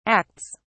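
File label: "acts", English, British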